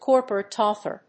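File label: "corporate author", English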